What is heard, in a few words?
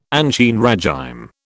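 "ancien régime", British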